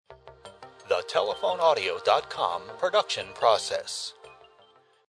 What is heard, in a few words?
process nar